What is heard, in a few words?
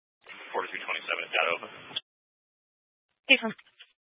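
Sheriff Dispatch, scanner audio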